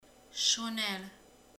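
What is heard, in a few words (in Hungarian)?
"hall", Hungarian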